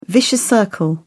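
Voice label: "vicious circle", British English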